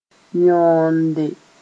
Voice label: "yõonde", moore

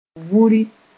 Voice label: "vuri", moore; to drag oneself along

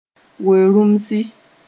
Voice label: "we-rũmsi", moore

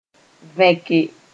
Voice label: "vẽke", moore

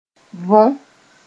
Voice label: "võ", moore

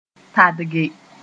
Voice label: "tadge", moore; to delay sth.

